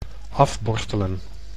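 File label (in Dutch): "afborstelen", Dutch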